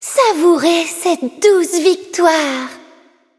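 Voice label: victory, French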